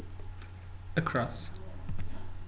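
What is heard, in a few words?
"across", Brazilian, male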